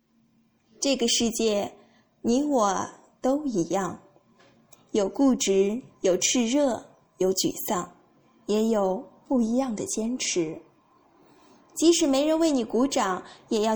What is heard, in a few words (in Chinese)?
治愈系列